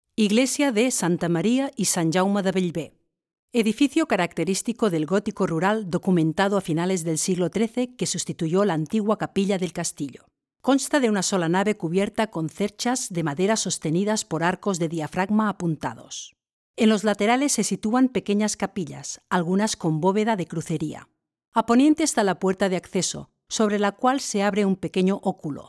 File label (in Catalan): locucio castella; sant jaume bellver